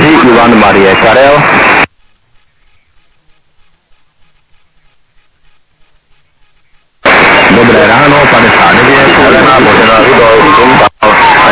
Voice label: SSB LSB 3.5MHz; SSB-LIGA